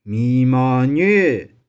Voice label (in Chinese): "mi1mau1nioe6", 玉環